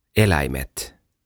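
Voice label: "Eläimet", Finnish; Animals; OTAVA KONSERNI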